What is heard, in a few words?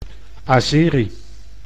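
"Azeri", Dutch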